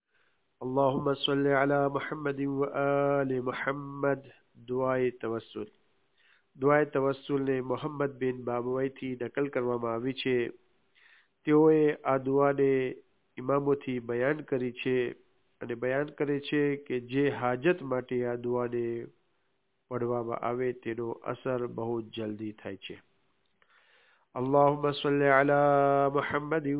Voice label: DUA TAWASSUL GUJ ARABIC